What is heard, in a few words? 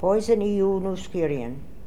"Poisonioonoskirian", pronounciations; Fourth Way; Beelzebub